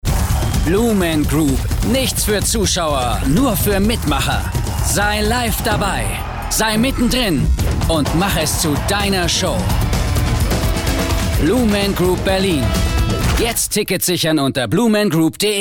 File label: male german comercial